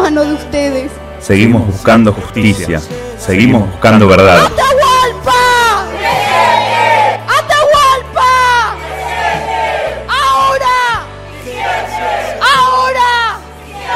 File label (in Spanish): Spot marcha